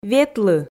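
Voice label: "ветлы", Komi, female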